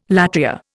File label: "latria", Indian